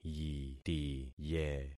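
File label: "yitiye", concatenated syllables; Affixing experiment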